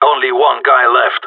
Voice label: one enemy left, radio; GSG9

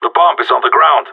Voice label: spotted loose bomb, radio; GSG9

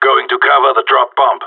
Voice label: going to guard loose bomb, radio; GSG9

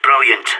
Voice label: good shooting, radio; SAS

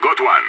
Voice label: enemy down, radio; SAS

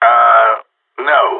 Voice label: negative, radio; GSG9

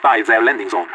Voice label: ct spawn, radio; LEET

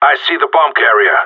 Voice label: bomber spotted, radio; GSG9

